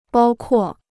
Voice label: "包括", Chinese